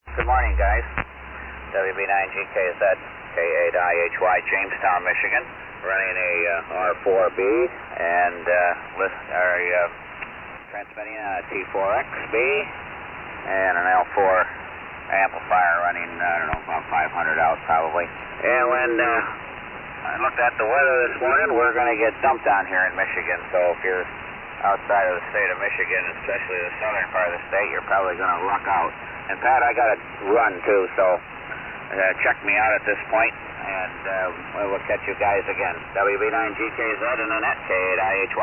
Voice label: Hudsonville, Mi. Drake R-4B T-4XC & L-4B Amp, vintage ssb